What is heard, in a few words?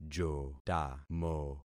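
"jotamo", concatenated syllables; Affixing experiment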